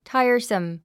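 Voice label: "tiresome", English word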